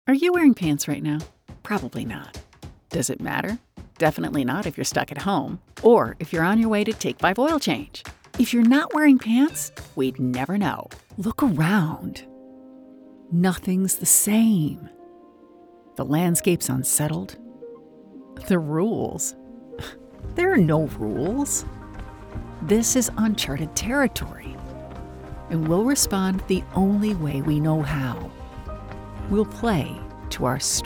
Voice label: commercial